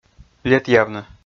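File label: "летъявны", Komi, male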